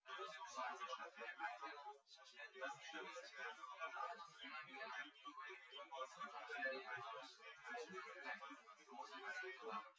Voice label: male; SiSEC08; SiSEC underdetermined